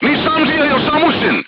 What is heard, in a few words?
voice message gunlayer contused, crew tanks serbian